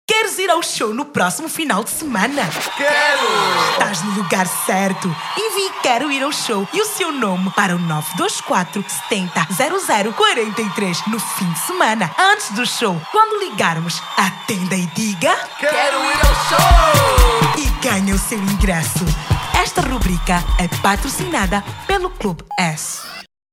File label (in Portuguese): Voz diva; Quero ir ao show